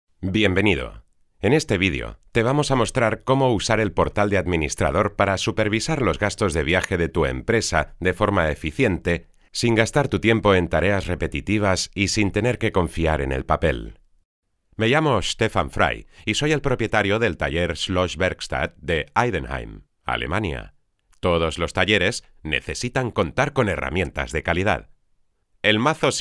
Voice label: Presentacion Spanish VO

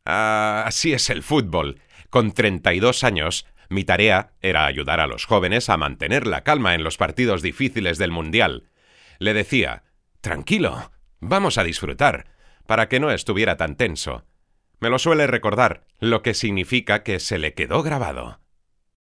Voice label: entrevista Doblaje Spanish VO

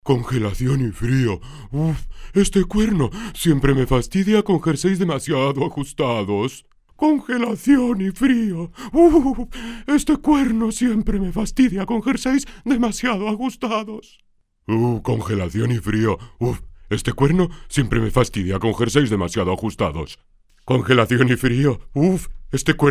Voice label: Caricaturas Spanish VO

